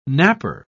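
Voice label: "napper", British English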